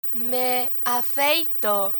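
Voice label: "me afeito", Spanish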